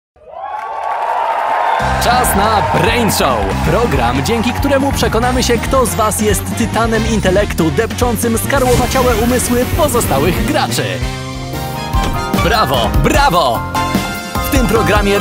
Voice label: polish tv shows; Talento de locução polonês